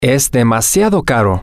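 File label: male, spanish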